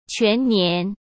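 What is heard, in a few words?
"全年", Mandarin Chinese; chinese flashcards; hsk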